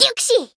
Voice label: attack, jp; urania